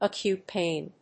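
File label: "acute pain", English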